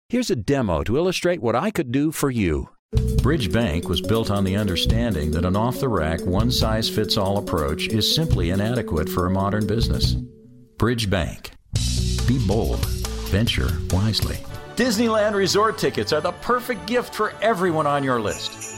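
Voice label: American, male; lektoring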